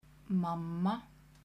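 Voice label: "mamma", Icelandic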